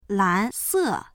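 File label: "lan2se4", Chinese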